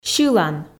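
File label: "сьылан", Komi, female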